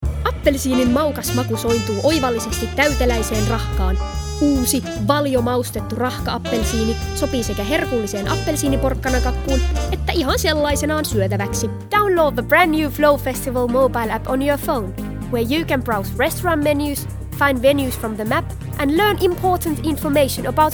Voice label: female English with Finnish accent and Finnish compilation